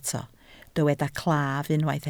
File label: "claf", Welsh